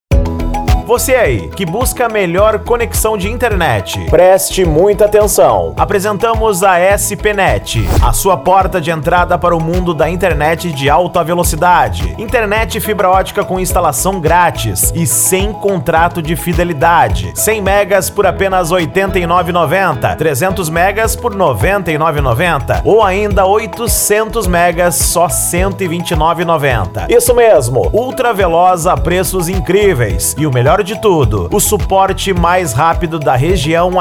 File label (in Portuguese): Locutor; SP Net Internet Porangatu GO